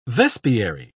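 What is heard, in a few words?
"vespiary", British English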